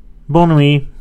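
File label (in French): "bonne nuit", French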